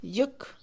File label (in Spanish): negro, Mixe, Mujer; colores; INALI